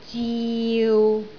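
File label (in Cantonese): "ziu6", Cantonese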